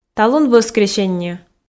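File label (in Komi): талун вӧскресенньӧ, Komi, female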